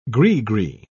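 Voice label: "gri-gri", British English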